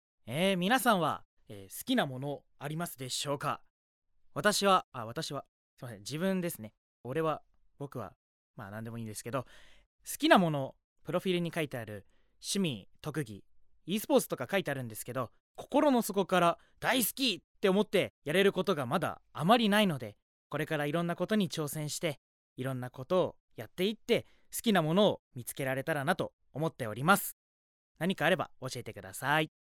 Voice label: Free Talk; フリートーク; A型; 出身地 / 埼玉県; bloomz-inc

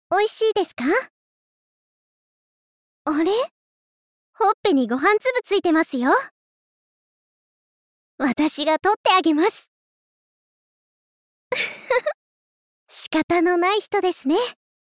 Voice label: Girl Healing, 優しい女の子

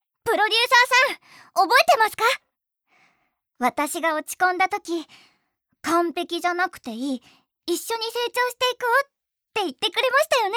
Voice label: Idol, 王道アイドル

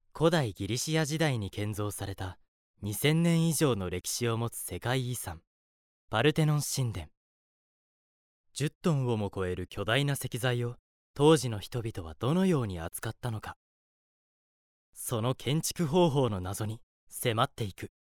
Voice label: Narration Documentary; ナレーション01ドキュメンタリー

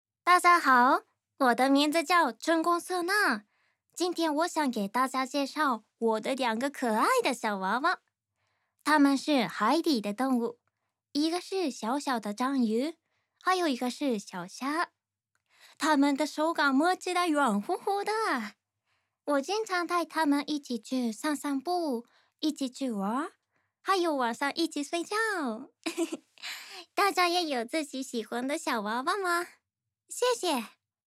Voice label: Free Conversation Chinese; フリートーク(中国語)

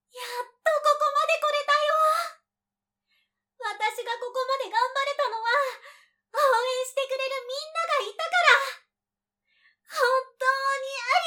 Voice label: voice sample, idol

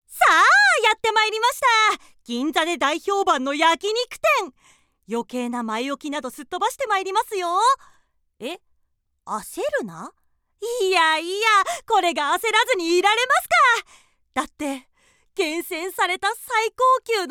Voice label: narration

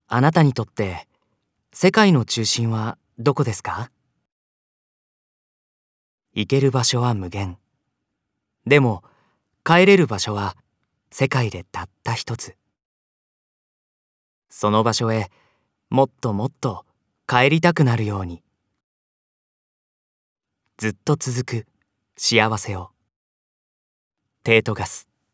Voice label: narration

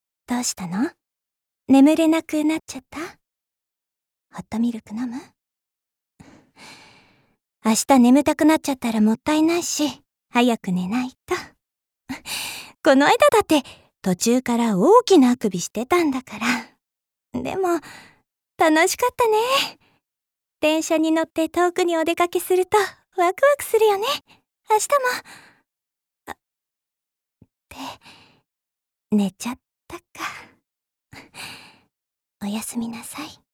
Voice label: voicesample Women

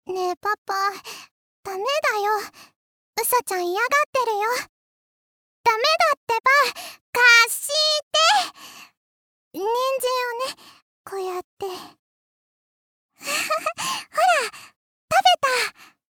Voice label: Girl Young, 幼い女の子

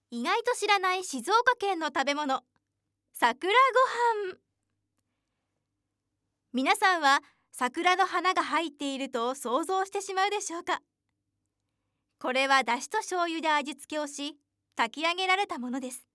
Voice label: narration

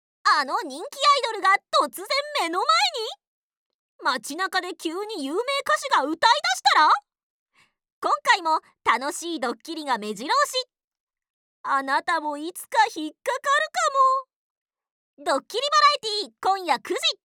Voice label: Variety narration; バラエティナレーション

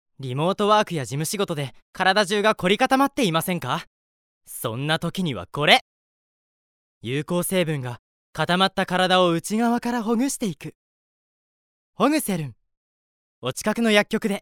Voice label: Narration Pharma PV; ナレーション02製薬会社PV; bloomz-inc

